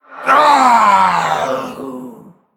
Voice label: Male Monster Death